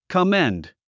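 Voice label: "commend", English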